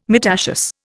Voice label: "myrtaceous", Indian